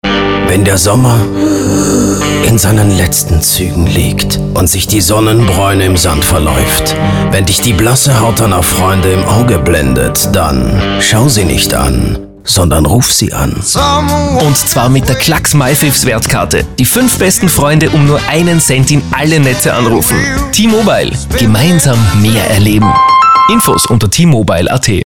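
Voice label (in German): Hörfunk Herbst Auge; T-Mobile; MyFaves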